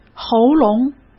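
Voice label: "喉咙", Mandarin Chinese; hsk; chinese-flashcards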